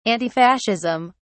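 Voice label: "antifascism", British English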